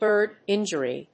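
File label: "BIRD INJURY", English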